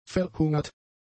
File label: "verhungert", German; adjectives